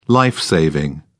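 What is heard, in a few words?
"life saving", British English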